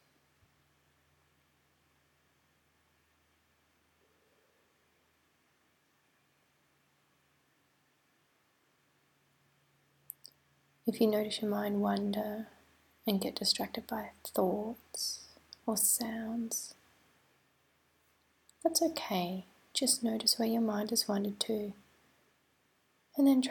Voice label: Breath Awareness Exercise with Ocean